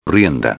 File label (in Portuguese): "rienda", espanhol